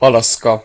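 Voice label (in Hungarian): "alaska", Hungarian; gcompris; geography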